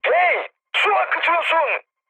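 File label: Turkish damaged water, mood high, speech; War Thunder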